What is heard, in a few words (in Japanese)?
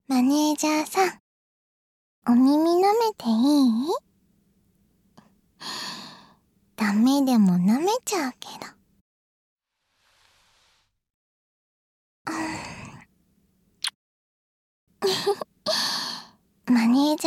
耳なめスタート台詞 ノイズ除去なし; カスタマイズトラック; フリー耳舐め（左耳パーツ）